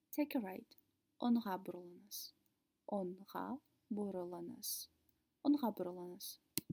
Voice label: Take a right, kazakh